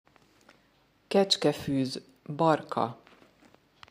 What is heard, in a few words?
kecskefuz barka Goat willow, Hungarian; hungarian flower flashcards